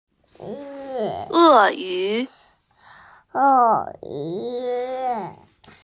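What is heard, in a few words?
"e4yu2", Mandarin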